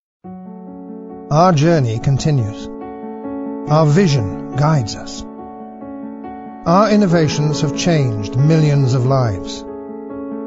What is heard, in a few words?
narrative